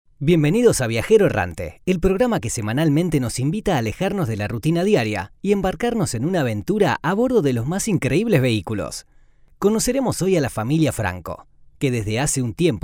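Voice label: Latin American Spanish Argentina accent M narration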